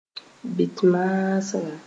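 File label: "bɩt-maasga", Mooré; long cycle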